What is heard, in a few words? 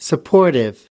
"supportive", us